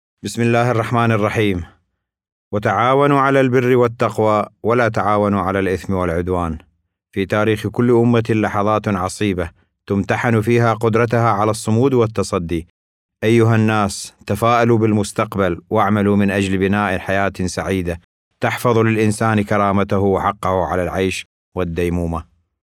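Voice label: arabic male narration; voice demos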